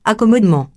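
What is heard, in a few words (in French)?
"accommodement" French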